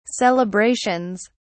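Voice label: "celebrations", British English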